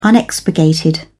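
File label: "unexpurgated", English, uk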